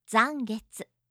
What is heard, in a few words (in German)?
"zangetsu", japanische